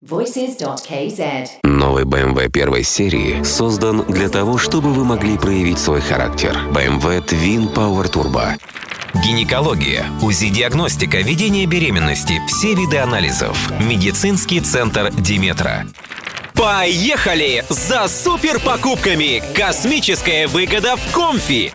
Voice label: ru